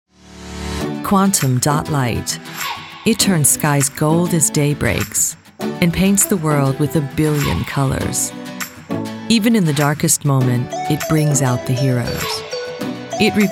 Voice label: Upbeat Reel